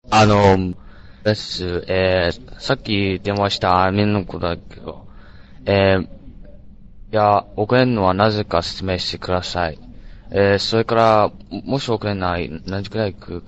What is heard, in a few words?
Japanese Return Telephone Call; Sample C Score 2